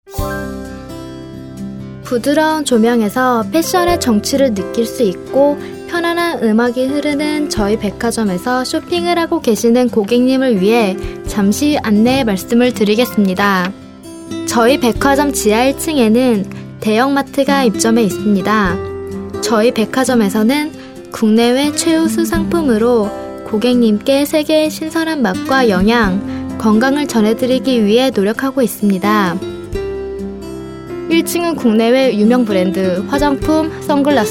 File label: korean girl